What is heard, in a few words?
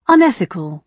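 "unethical", British English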